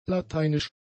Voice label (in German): "lateinisch", German; adjectives